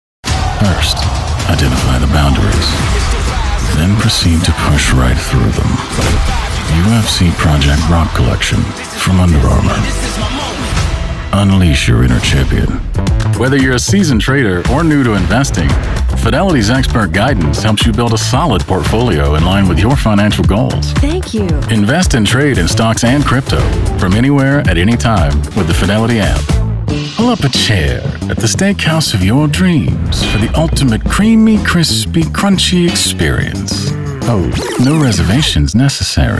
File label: Commercials